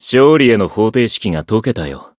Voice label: win, jp